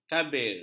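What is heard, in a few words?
"kabir", Malay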